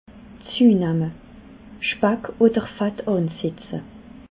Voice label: "zuenamme", alsace

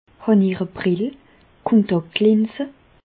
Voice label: brill, Bas Rhin; medecine; portez vous des lunettes des lentilles de contact

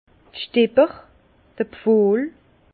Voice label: "stipper", Bas Rhin; vin; tuteur

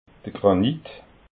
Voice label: "granit", alsace

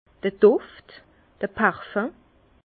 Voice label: "duft", Bas Rhin; le parfum; vin

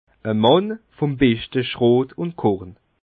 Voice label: "mann", Bas Rhin; un homme de la meilleure espèce de seigle et d’orge

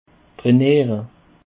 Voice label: "trainere", alsace

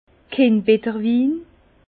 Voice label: "kindbetterwin", Bas Rhin; vin

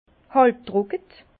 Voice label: "halbtrocke", Bas Rhin; vin; demi sec

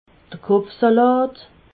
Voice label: "kopfsalat", alsace

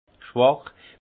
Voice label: "schwach", alsace; vin; faible

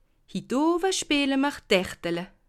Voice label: "spiele", alsace

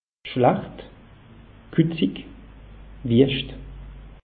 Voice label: "schlacht", alsace; meteo

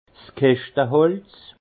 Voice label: "keschteholz", alsace; vin; châtaignier

